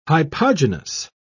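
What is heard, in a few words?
"hypogynous", British English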